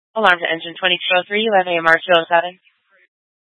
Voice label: Fire Departments Mesa Simulcast; TOPAZ

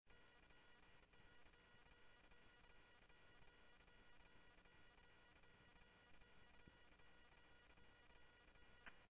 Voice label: Phoenix PD, scanner audio

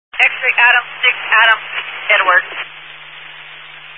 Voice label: Highway Patrol, Phoenix Metro West Area, scanner

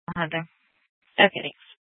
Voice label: Dispatch, Mesa PD; 4 Superstition Dispatch

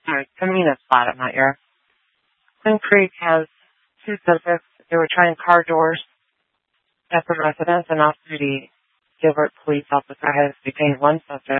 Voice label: Sheriffs Office, scanner

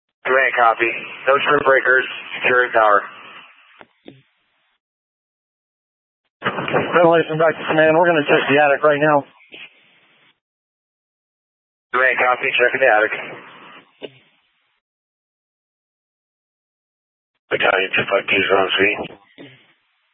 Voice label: Fire Dpts VHF 154.800 Patch, scanner; TOPAZ PD (TRWC); Mesa

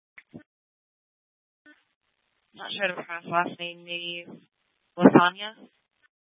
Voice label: Tempe PD, scanner; A04 South

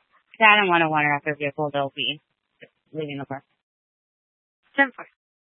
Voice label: Tempe PD, scanner; Rgnl Wireless Co-op (RWC)

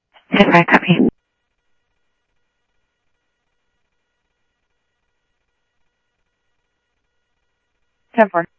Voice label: scanner audio trunk

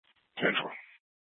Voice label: PD Dispatch

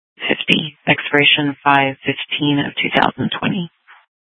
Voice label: Sheriff, scanner P25

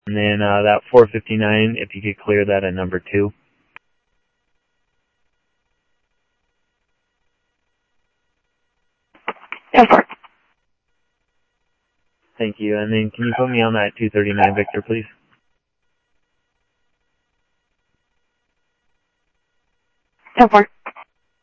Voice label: Sheriff scanner audio

